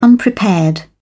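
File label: "unprepared", British English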